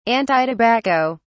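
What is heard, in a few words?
"antitobacco", British English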